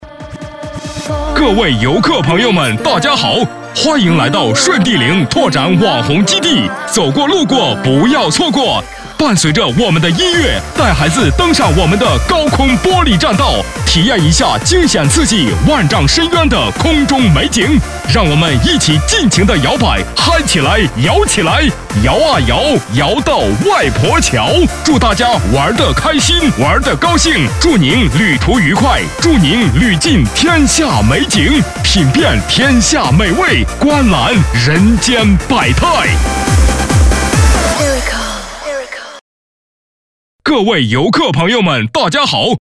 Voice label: 促销网红游乐场激情嗨翻天

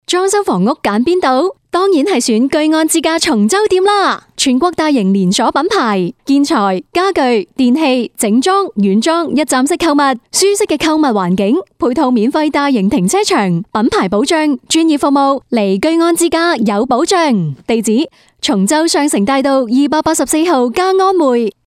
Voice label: 广告录音

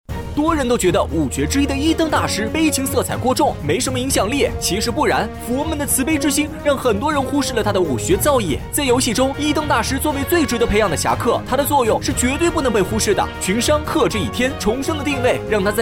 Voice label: 游戏广告

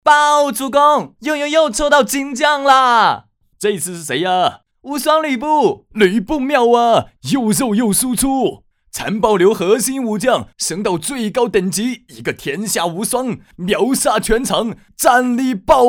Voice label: 台湾腔; 吕布

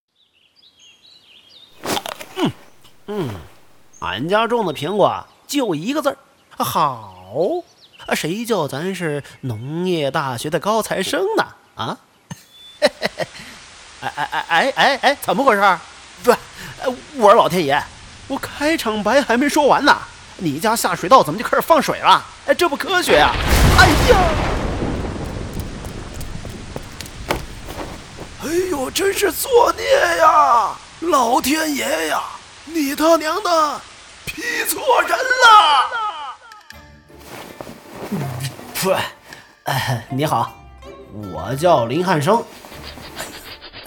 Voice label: 自录书片花角色旁白

